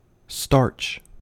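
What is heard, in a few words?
"starch", US English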